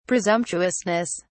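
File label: "presumptuousness", British English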